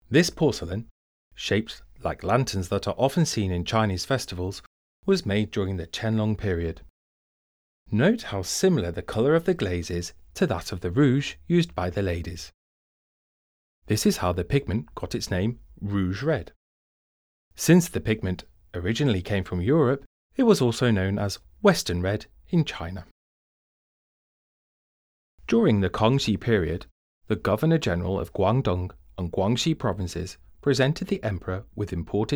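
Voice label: English, audio guide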